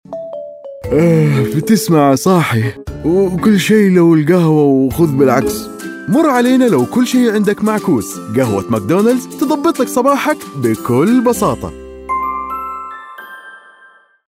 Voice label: radio Coffee; McDonalds